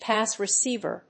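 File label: "pass receiver", English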